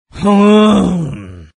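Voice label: happy, Chinese; Invo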